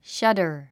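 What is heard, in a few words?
"shudder", English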